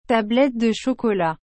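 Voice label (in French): "tablette de chocolat", French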